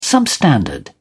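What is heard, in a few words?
"substandard", British English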